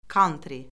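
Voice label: "kantri", Azerbaijani; Orfoqrafiya Lüğəti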